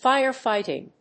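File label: "fire fighting", English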